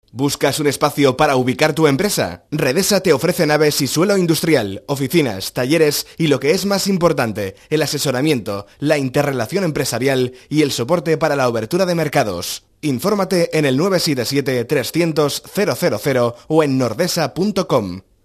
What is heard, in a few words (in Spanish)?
locutor castellano